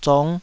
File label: "zong5", Taiwanese